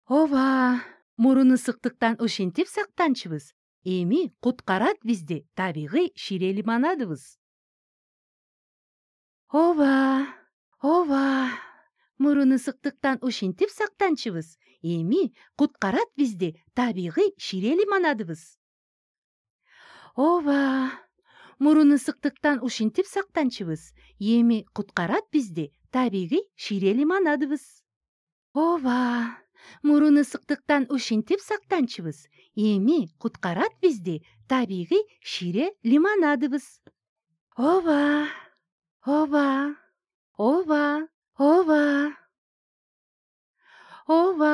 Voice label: Kyrgyz female

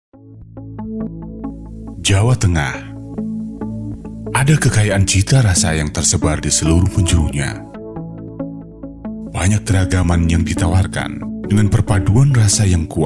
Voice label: Indonesian

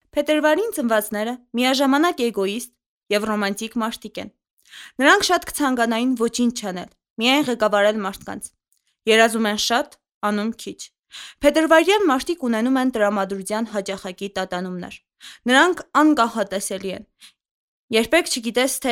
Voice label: Armenian

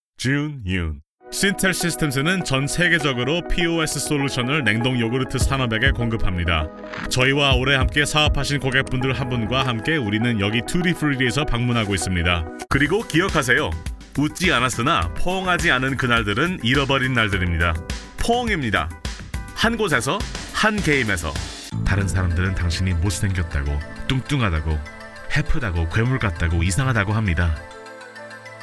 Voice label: Kor, golosa treki

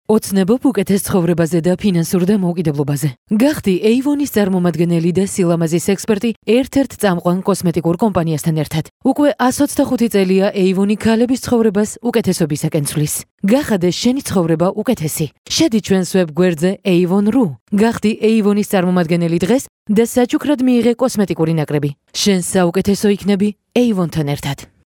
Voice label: Georgian female

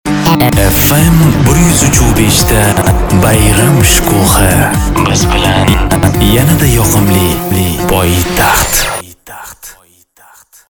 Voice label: Uzbek

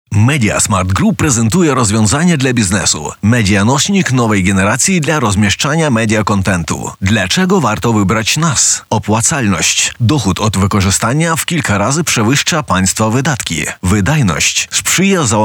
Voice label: Polish

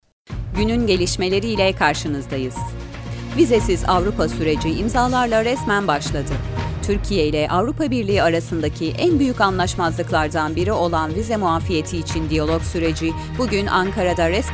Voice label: Turkish female

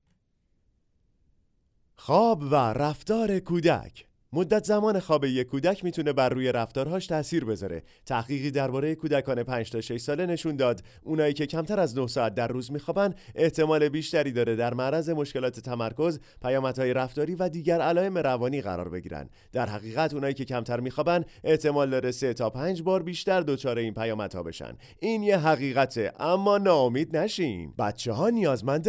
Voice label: Persian-Farsi male

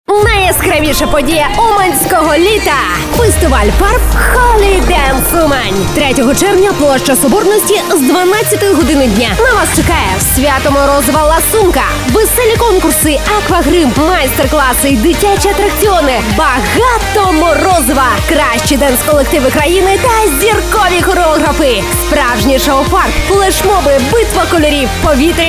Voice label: Ua, golosa treki

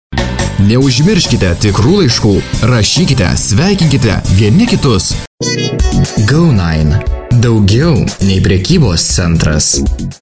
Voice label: Lithuanian male

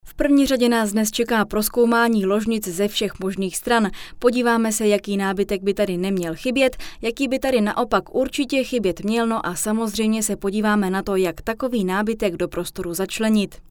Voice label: Czech female

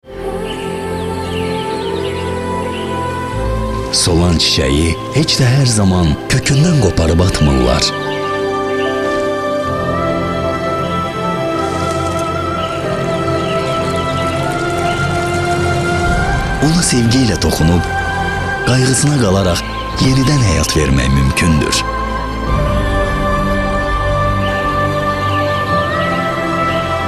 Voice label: Azerbaijan male

